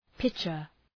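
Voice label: "pitcher", English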